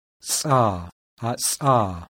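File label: alveolar fricative ejective, voiceless; IPA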